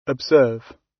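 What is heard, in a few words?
"observe" British English; Time, history; Thời gian, lịch sử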